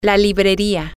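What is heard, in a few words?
the book store, Spanish, female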